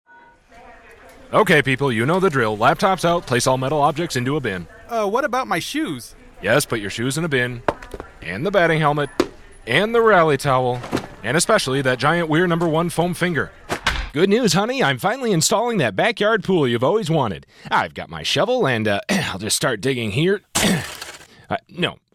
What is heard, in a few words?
Characters, commercial voiceover